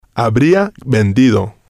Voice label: "habria vendido", spanish